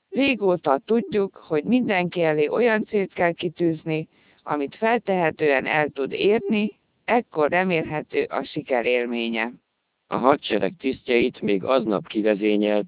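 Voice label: Hungarian, speech, CODEC2 3200 bps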